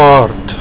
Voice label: "kaart", Afrikaans

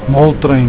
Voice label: "moltrein", Afrikaans

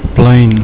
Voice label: "plein", Afrikaans

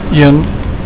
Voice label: "een", Afrikaans

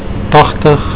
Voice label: "tagtig", Afrikaans

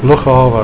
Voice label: "lughawe", Afrikaans

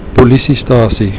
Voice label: "polisiestasie", Afrikaans

